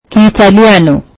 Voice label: swahili Italian